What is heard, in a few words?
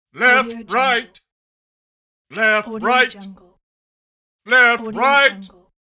military command left right